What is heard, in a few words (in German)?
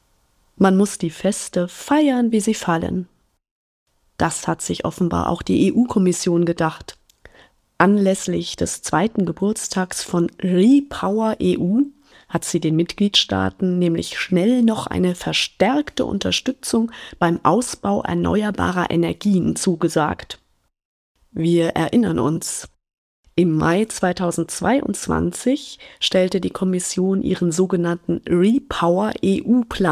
Kommentar; Kontrafunk aktuell